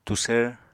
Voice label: "tusvr", apatani